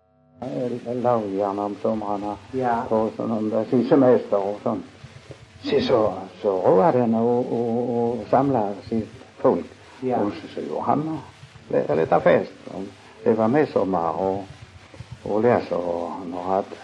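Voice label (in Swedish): Munka-Ljungby Skåne, dialekter svenska sagor; Folkminnen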